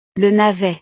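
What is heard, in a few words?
"le navet", French; verduras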